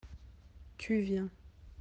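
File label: "venir", French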